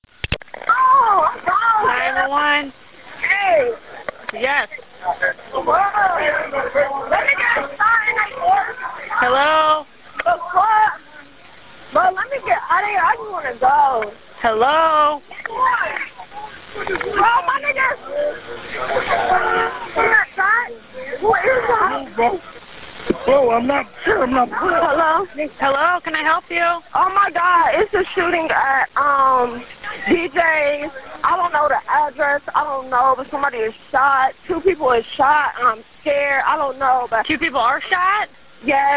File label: 911 call; Geno's Party Center